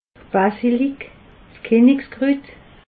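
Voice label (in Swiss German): "basilik", Alsatian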